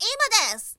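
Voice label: "imadesu", Japanese, woman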